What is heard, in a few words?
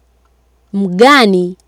"mghani", swahili